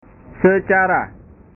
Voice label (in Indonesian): "secara", bahasa